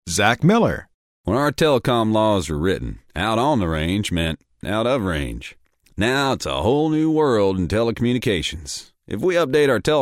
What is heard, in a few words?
COWBOY